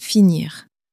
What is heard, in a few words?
"finir", common french words